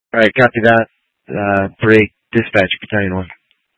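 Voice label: Incident Tac 1, scanner audio recording, Grand Junction, Mesa County, Colorado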